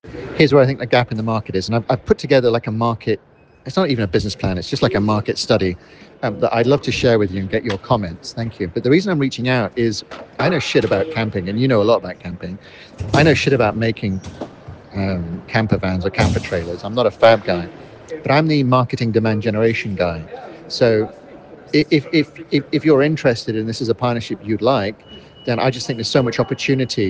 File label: voice note